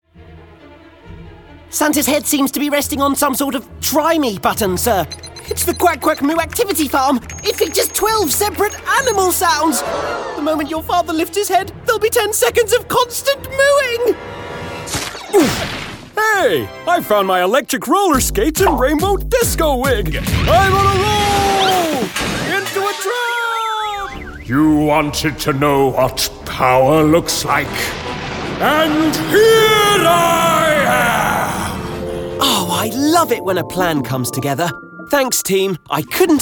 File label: animation